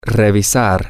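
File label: to Revise Look Over, Spanish, male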